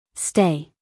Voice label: "stay", US English, female